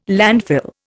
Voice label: "landfill", Indian

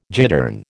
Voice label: "gittern", American

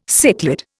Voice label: "cichlid", Indian

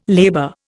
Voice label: "labor", Indian

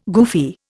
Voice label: "goofy", Indian

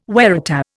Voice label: "waratah", Indian

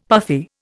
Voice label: "puffy", Indian; Dictionary

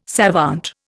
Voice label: "savant", Indian

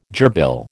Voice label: "gerbil", American

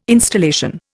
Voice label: "installation", Indian

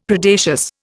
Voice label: "Predacious", Indian; Dictionary

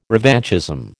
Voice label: "revanchism", American

